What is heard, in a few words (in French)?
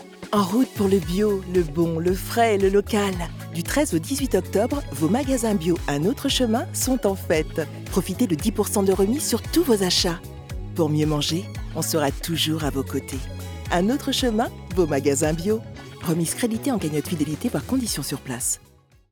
pub radio locale, voix off féminine; Promotion épicerie bio (19 s)